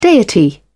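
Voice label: "deity", British English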